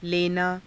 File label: "lenaa", Hindi